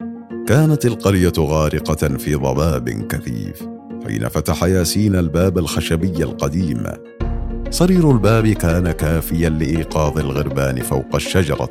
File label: voiceover; a dynamic and engaging advertising performance aroma coffee